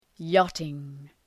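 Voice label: "yachting", English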